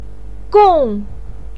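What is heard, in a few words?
"gong" fourth tone, chinese, voice pinyin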